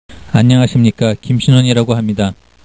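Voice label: Korean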